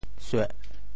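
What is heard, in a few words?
"sueh4", Teochew; 潮州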